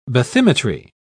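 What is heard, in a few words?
"bathymetry", British English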